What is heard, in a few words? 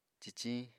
"ciciñ", apatani